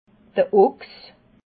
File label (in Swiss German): "ochs", alsace